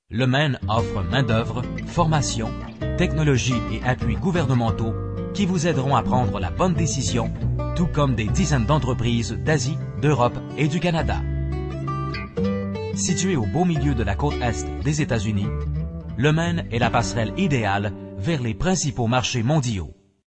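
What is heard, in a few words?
French male voice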